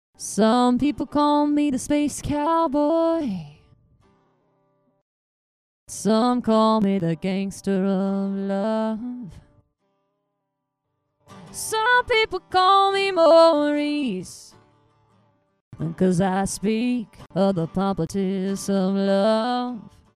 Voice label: Hardtune Correction